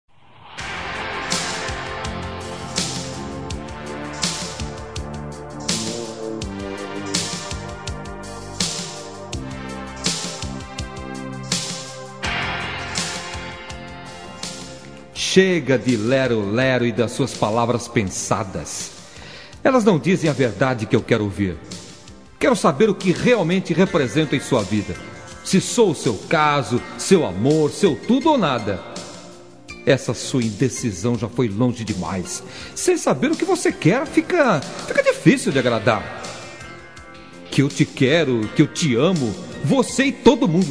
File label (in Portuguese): ROM ULTIMATO MASC